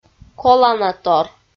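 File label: "коланатор", Komi, female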